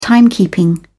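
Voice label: "timekeeping", British English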